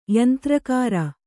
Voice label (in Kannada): "ಯಂತ್ರಾಗಾರ", Kannada